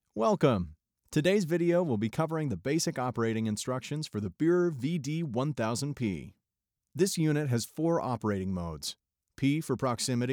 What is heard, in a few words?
english american e-learning